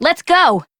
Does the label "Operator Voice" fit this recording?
Yes